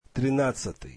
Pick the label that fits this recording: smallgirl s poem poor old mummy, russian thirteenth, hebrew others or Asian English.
russian thirteenth